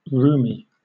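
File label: "rheumy", British English; pronunciations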